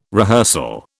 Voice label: "rehearsal", British